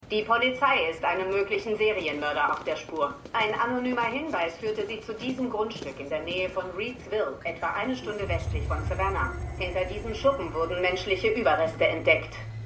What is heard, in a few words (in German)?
Nachrichtensprecherin; AtlantaMedical